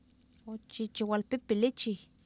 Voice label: oochiichiwalpIipilIchiw, Oapan minimal pairs female; Oapan minimal pairs 1998